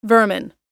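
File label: "vermin", American English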